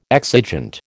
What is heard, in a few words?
"exigent", American